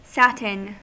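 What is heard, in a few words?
"satin", American English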